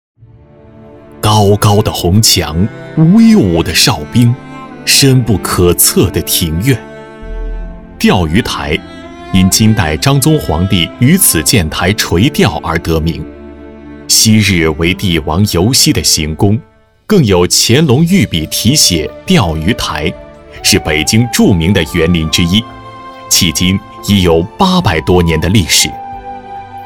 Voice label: 宣传 酒 大气高端; 钓鱼台酒

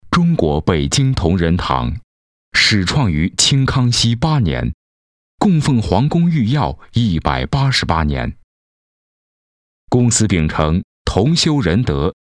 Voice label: 宣传 娓娓道来 宣传; 同仁堂

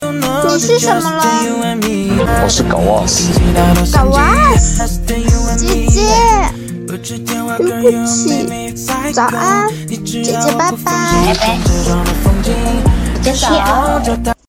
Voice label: 台配萌娃

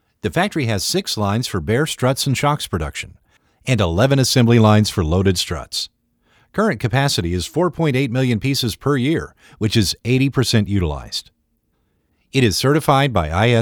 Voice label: 外籍 英语 美式 口音 工厂 介绍 轻快

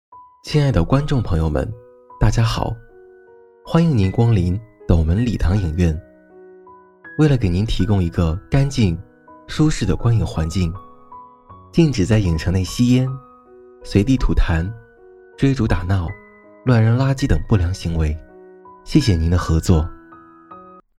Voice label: 影院播报

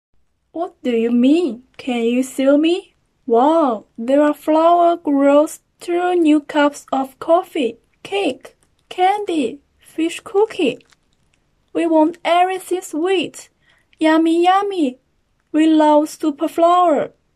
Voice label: 英文台词

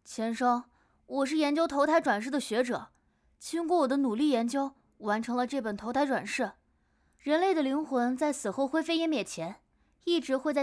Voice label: 角色类 中性音

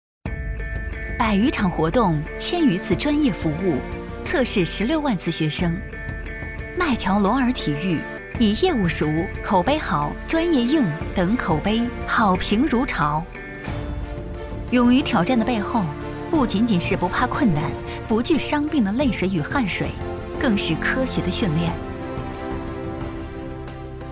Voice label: 企业大气介绍; 麦乔罗尔体育

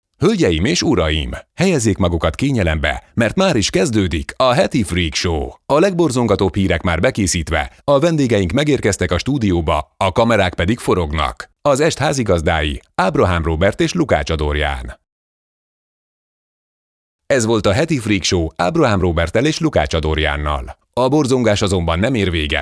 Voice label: 匈牙利语男磁性厚重

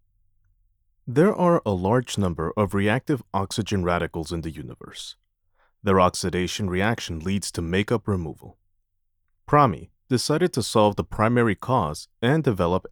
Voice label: 外籍英式英语化妆品广告

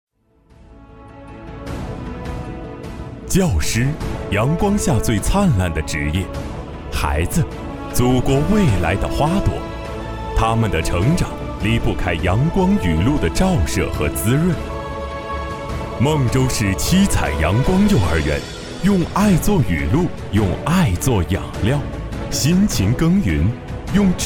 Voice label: 幼儿园样音合成; 七彩阳光幼儿园

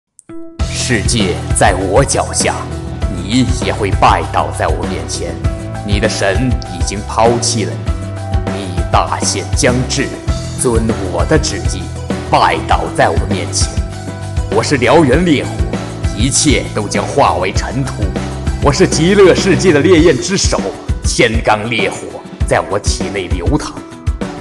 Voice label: 刚毅大气沉稳; 盲僧

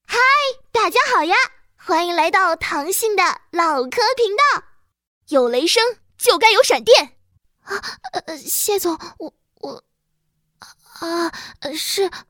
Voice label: 配音声线展示少女

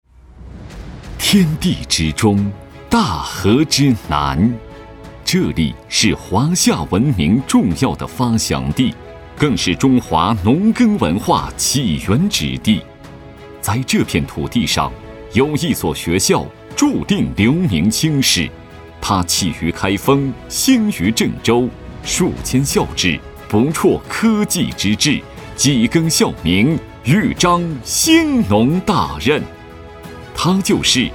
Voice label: 专题片 大气 激昂

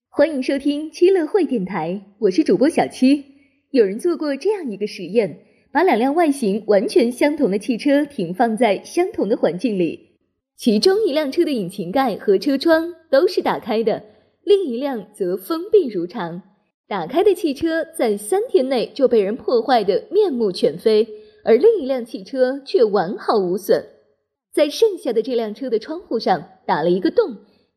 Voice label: 电台节目

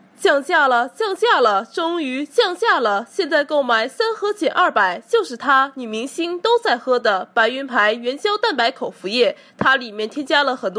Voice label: 短视频促销广告

